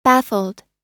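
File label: "baffled", American English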